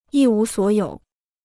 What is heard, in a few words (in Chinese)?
"一无所有", Chinese